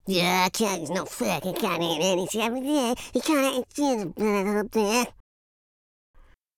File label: angry, Teenage Angst; speech